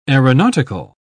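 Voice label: "aeronautical", English, uk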